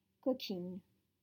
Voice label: "coquine", French